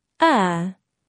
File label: ʌ, phonetics